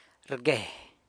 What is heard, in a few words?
"rgue", San Lucas Quiaviní; carries